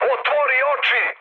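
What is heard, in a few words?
Serbian player friendly fire mood med; speech; War Thunder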